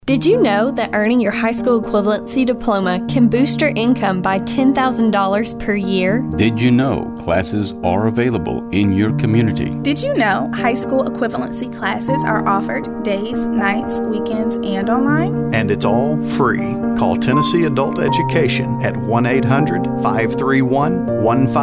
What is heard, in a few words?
Radio Commercial